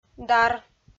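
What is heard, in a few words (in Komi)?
"дар", Komi, female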